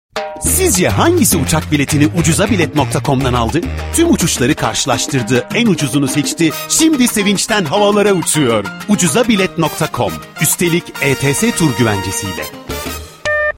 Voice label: enerjik dinamik neseli; ucuzabilet

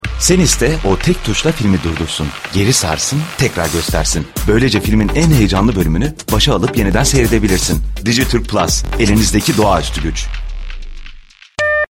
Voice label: reklam enerjik; dijiturk

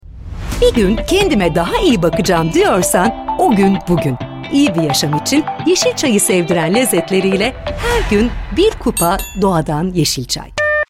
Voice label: reklam enerjik dinamik neseli; dogadan

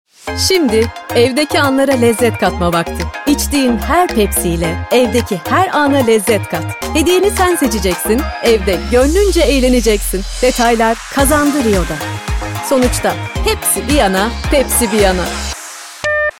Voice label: reklam neseli enerjik; pepsi

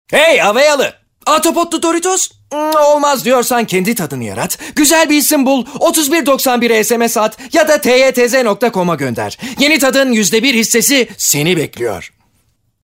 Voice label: reklam dinamik eglenceli genc alayci; doritos

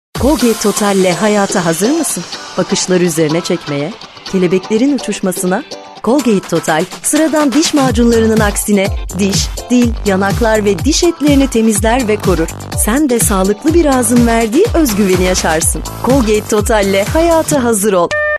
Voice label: reklam enerjik karizmatik; colgate

